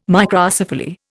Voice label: "Microcephaly", Indian